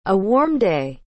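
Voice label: "a warm day", English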